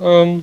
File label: "um", Voice